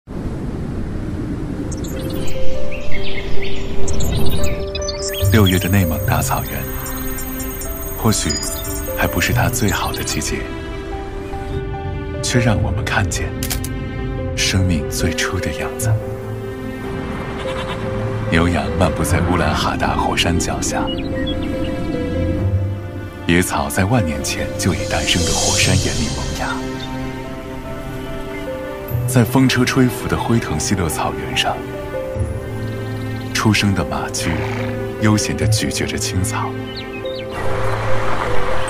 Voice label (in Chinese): 男,汽车; 沃尔沃汽车